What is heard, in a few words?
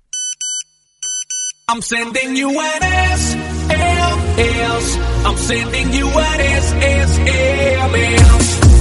i am sending you message sms tone ringtone, sms ringtones